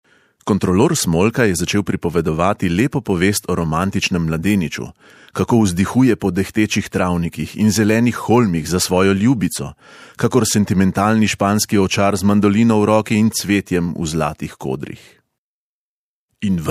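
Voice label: slovenian male narration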